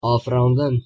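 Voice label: "afirandin", Kurdish, male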